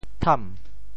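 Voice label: "tham2", Teochew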